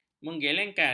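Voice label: "menggelengkan", Malay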